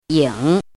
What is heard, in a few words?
"ying3", pinyin